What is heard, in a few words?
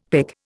"pic", Indian; Dictionary